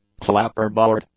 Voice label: "clapperboard", English; nouns